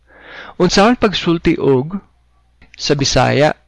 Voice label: how to say, cebuano